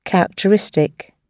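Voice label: "characteristic" British English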